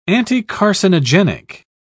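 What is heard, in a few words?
"anticarcinogenic", English, British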